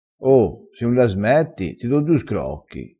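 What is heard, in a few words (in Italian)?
"scrocchi", dialettale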